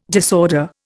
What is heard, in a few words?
"disorder", Indian